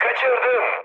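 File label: Turkish bomb failure, mood high, speech; War Thunder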